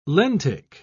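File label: "lentic", British English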